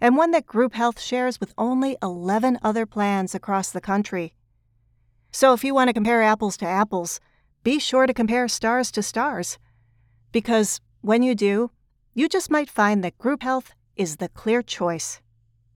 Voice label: Medicare Business Corporate